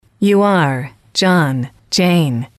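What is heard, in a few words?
"you are", English, female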